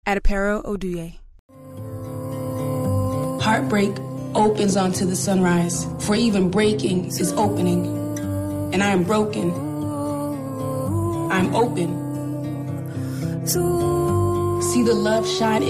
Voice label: commercial, commercial women